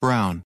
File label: brown, American